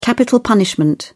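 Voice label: "capital punishment", British English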